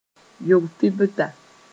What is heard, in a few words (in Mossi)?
"yog-fibda", moore